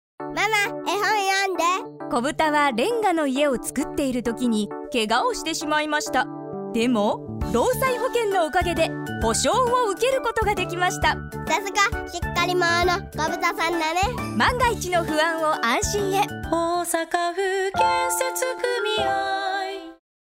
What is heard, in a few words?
radio; osaka